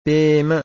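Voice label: "pema", Pali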